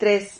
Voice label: "trez", Silabas

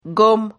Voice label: "gom", Silabas

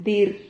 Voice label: "dir", Silabas

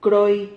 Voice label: "croi", Silabas

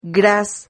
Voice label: "graz", Silabas

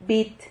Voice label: "bit", Silabas

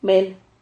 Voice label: "mel", Silabas

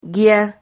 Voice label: "guia", Silabas

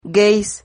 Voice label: "gueis", Silabas

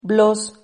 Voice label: "blos", Silabas

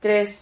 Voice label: "tres", Silabas

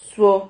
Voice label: "zuo", Silabas

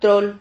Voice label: "trol", Silabas

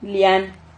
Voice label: "lian", Silabas

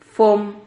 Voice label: "fom", Silabas

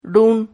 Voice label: "run", Silabas

